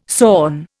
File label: "sawn", Indian